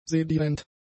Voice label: "sedierend", German; adjectives

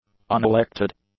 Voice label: "unelected", English; adjectives

